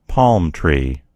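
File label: "palm tree", American English; gcompris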